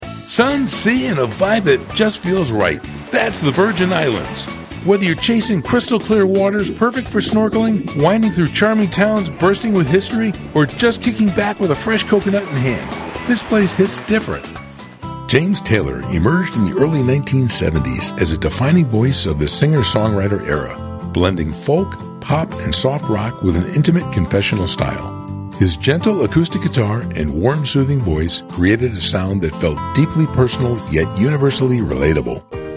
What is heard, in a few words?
Narrative